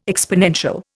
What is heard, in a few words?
"exponential", Indian